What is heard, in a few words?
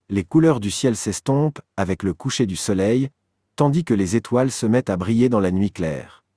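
French; iOS Sounds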